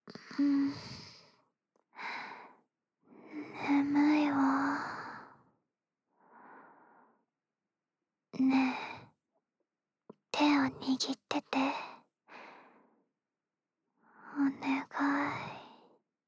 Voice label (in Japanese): 眠いわ, ささやきボイス; 憂妃の共依存癒やしささやきボイス